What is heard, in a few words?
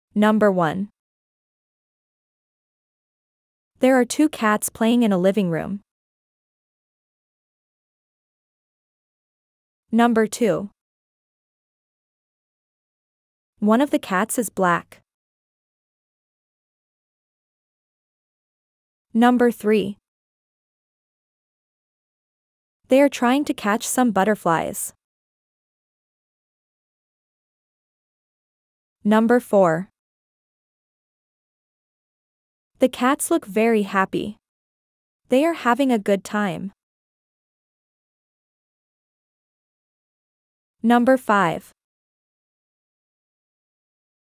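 American; describing cats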